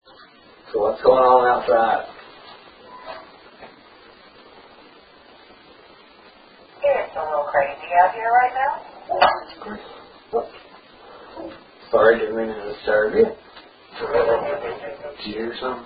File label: Master Bedroom Male Voice - Thats Great; Case 021 Zepp Home